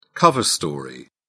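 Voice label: "cover story", British English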